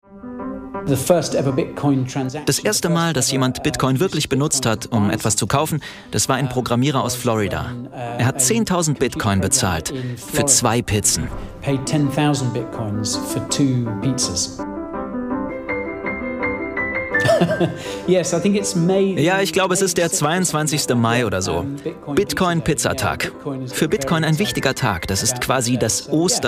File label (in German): Voiceover; ZDFinfo